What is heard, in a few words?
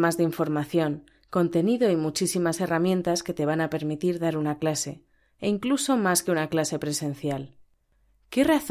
E-Learning